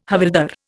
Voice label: "havildar", Indian